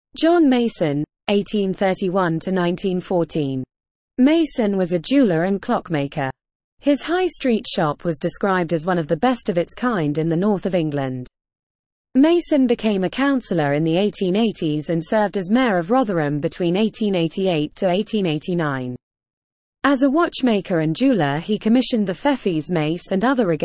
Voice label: Female